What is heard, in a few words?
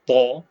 short voyels arabic alphabet